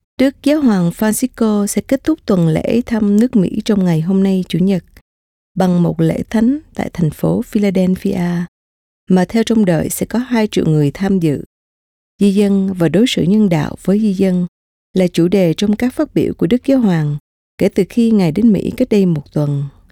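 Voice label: Vietnamese, voice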